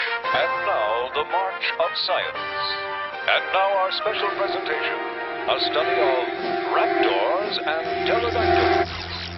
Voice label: narrator; March of Science